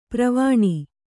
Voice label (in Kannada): "ಪರ್ವಣಿ", Kannada